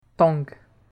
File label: "tong", French